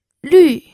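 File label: "lü", fourth tone, pinyin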